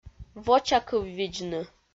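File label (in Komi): вочакыв видзны, Komi, female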